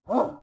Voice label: Gasmask Fort Vox; Beyond the Sword; Units